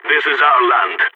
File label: on a roll brag, balkan; vo